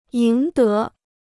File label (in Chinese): "赢得", Chinese